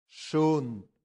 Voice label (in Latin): "sunt", latin; santillana